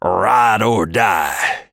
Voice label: Hero voice; Dallas